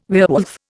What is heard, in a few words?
"werewolf", Indian